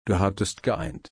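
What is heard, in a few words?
"einen", German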